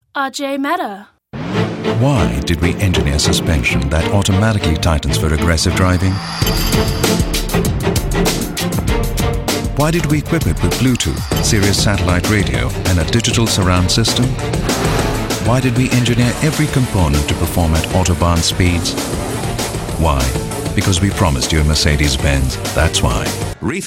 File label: Commercial